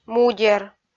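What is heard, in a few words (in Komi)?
"мудер", Komi, female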